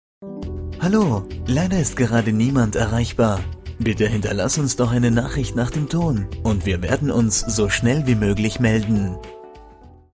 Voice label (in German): wir ansage mit musik, anrufbeantworter